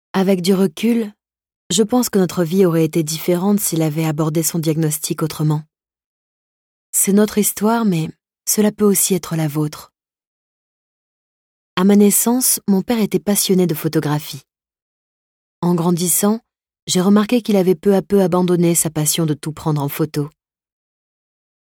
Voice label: french audiobooks; professional French voice actress